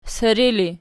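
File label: siri ergative, Archi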